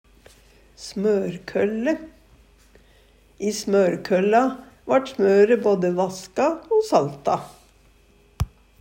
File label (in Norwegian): "smørkølle", Numedalsmål